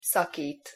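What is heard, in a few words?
"szakit", Hungarian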